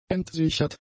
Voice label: "entsichert", German; adjectives

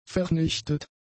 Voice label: "vernichtet", German; adjectives

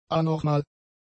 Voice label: "anormal", German; adjectives

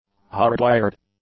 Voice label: "hardwired", English; adjectives

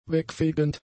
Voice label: "wegfegend", German; adjectives

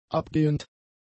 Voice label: "abgehend", German; adjectives

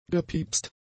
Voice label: "gepiepst", German; adjectives

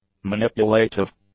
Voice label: "manipulative", English; adjectives

